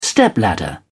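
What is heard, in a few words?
"stepladder", British English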